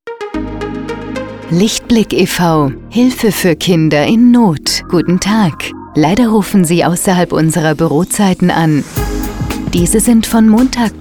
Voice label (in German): Ansage; Lichtblick